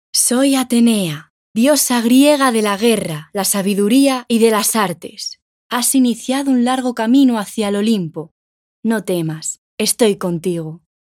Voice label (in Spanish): Videojuego diosa